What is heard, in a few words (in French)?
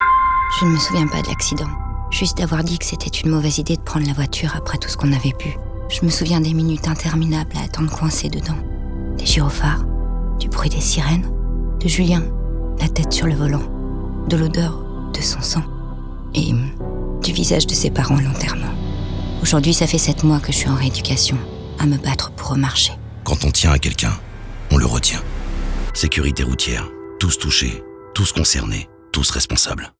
Radio spot alcool; garnier